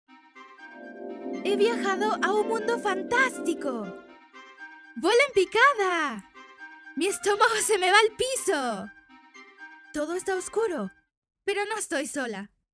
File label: Acting